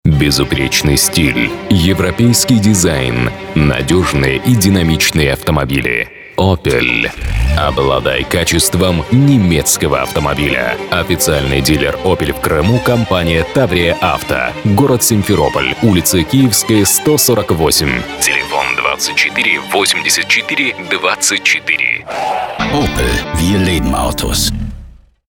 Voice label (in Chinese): 男俄 OPEL欧宝; 外语配音